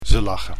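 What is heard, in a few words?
"ze lachen", Dutch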